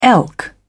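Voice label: "elk", British English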